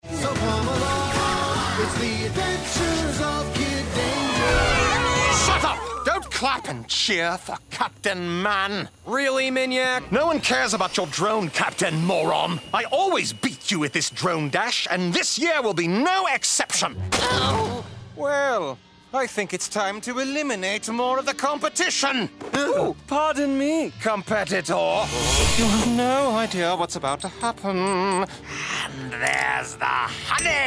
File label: Animation